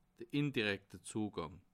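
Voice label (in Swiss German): "indirekte", Alsatian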